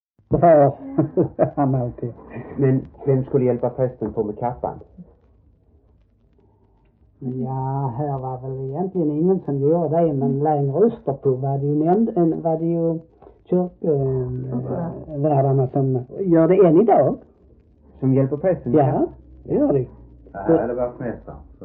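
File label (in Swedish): Äspö Skåne, Svenska sagor dialekter; Folkminnen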